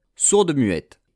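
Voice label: "sourde-muette", French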